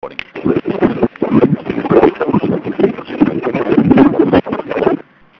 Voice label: Underwater

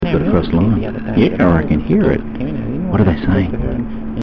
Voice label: Crosstalk

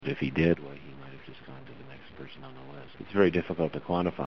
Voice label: Fluctuating

